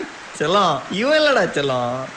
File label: Tamil Comedy